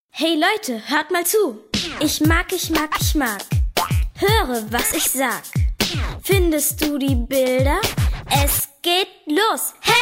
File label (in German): Rap